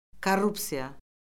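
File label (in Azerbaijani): "korrupsiya", Azerbaijani; Orfoqrafiya Lüğəti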